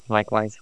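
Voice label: voice acting, es, female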